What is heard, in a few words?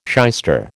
"shyster", American